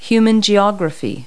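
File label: "human geography", English words; Multi-Lingual Talking Picture Dictionary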